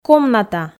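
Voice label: "комната", Komi, female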